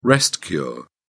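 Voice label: "rest-cure", British English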